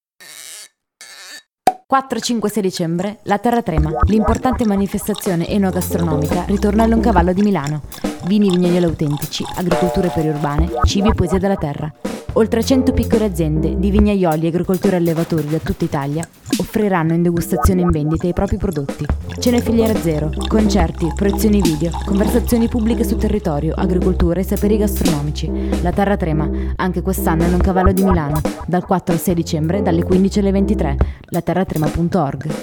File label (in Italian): spot; la terra trema